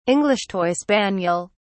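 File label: "english toy spaniel", British English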